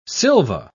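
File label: "silva", British English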